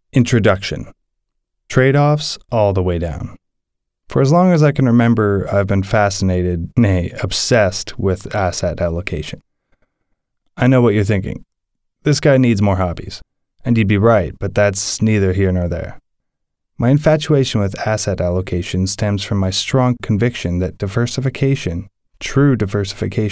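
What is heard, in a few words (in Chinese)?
外籍男英_外语_美式英语; Theallocatorsedge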